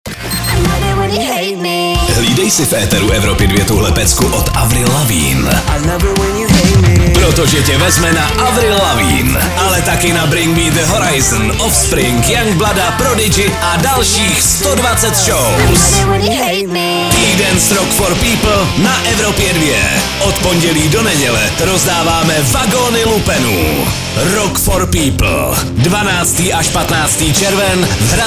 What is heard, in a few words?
liner; evropa2; tyden s r4p